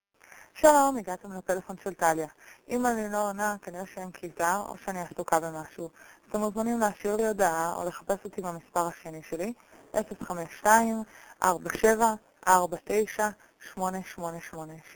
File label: voice mail